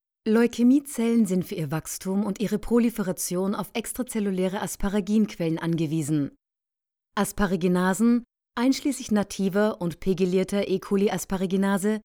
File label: Corporate GER